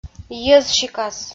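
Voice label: "йӧзсикас" Komi, female; audio dict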